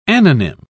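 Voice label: "anonym", British English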